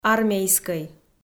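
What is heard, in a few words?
"армейскӧй", Komi, female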